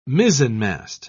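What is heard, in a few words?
"mizenmast", British English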